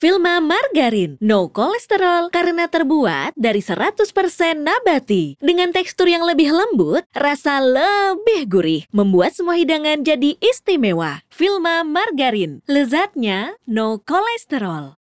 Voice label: CASUAL INDONESIA